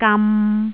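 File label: "gam3", Cantonese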